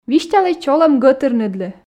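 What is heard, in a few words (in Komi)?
"висьталӧй чолӧм гӧтырныдлы", Komi, female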